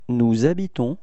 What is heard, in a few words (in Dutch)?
habiter nous, frans